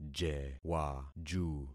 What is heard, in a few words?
"jewaju", concat syllables; Affixing experiment